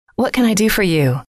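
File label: what Can I Do For You, female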